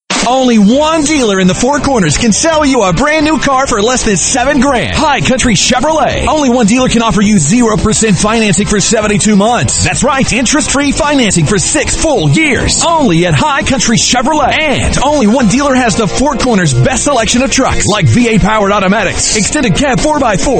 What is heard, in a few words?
COMMERCIALS, Voiceover; Hi Country Chevrolet; Radio Imaging, Production & Voiceover